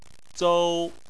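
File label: "zou", Mandarin Chinese, first tone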